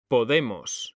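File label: "podemos", Spanish, male; mekanta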